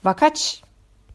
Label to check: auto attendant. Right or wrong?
Wrong